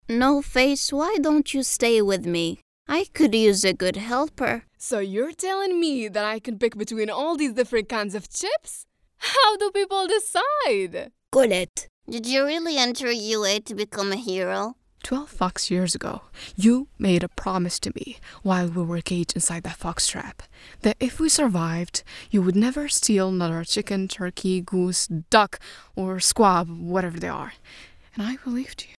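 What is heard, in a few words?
Arabic English female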